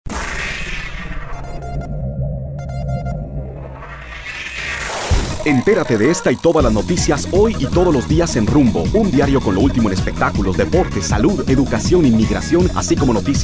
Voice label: spanish males